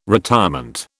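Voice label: "retirement", British English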